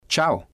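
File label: "chao", spanish, male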